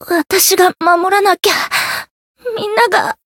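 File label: Voice retire, Japanese; myrus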